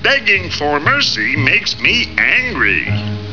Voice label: begging